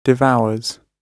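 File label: "devours", British English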